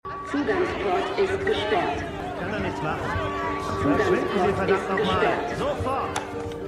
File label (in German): Durchsage Maennl; For All Mankind; Peacekeeper